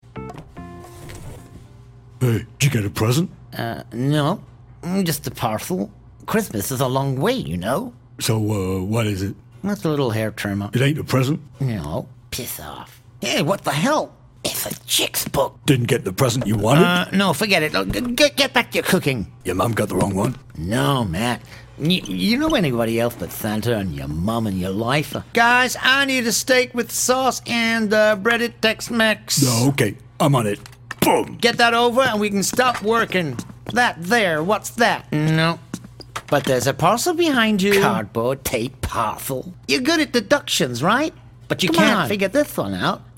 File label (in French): Version anglaise; autogrill